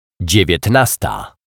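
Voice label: "dziewietnasta", Polish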